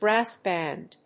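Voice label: "brass band", English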